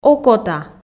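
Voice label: "окота", Komi, female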